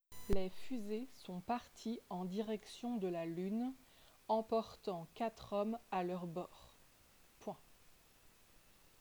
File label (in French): dictee